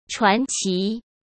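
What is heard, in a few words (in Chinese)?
"传奇", Mandarin Chinese; hsk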